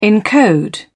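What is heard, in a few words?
"encode", British English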